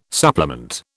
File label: "supplement", British